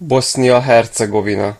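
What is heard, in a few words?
"bosnia herzegovina", Hungarian; gcompris; geography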